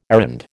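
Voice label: "errand", American English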